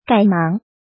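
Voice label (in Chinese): "赶忙", Chinese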